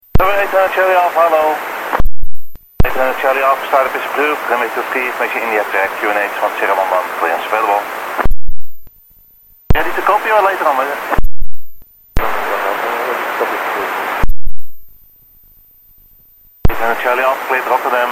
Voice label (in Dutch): luchtverkeersleiding opname